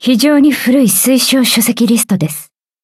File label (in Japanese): levelup, ja; cookie run